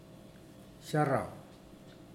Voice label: barracuda, Mokilese